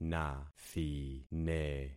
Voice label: "nathine", concat syllables; Affixing experiment